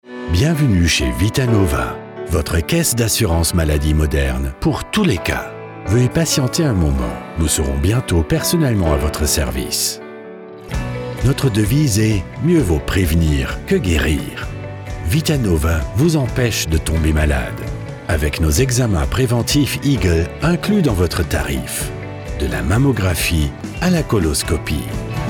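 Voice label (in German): Telefonansage, Wait Loop, French; Wait Loop (Warteschleife); Telefonansage - Vitanova (französisch)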